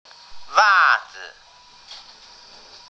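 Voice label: "wa4zi5", Mandarin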